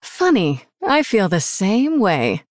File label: Female Human MIRROR START